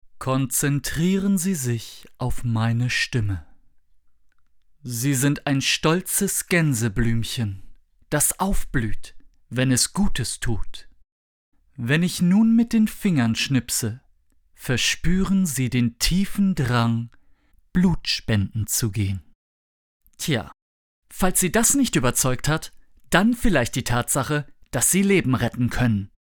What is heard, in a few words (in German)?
Werbung HYPNOSE